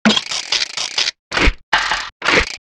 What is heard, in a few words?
murmur